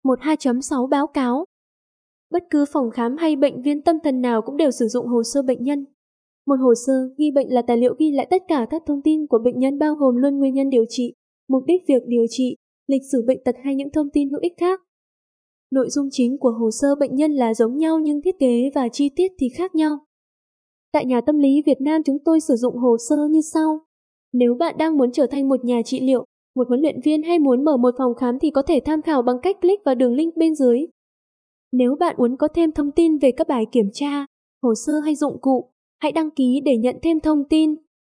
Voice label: Female